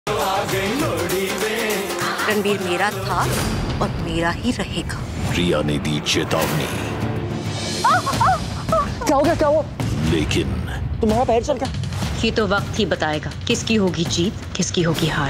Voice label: Promo; Kumkum Bhagya; कुमकुम भाग्य; Lohri; Zee TV